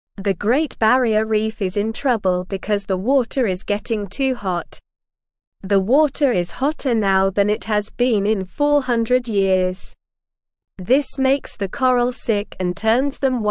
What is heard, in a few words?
English Beginner SLOW Reading; Help the Great Barrier Reef Stay Healthy and Safe